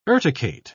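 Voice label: "urticate", British English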